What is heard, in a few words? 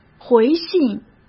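"回信", Mandarin Chinese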